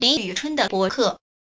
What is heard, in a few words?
Chinese